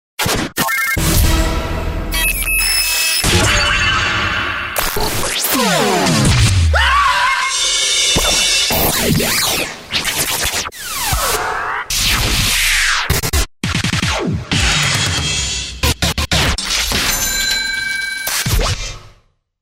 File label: Accents